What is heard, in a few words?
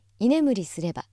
female